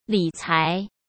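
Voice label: "理财", Mandarin Chinese; hsk; chinese-flashcards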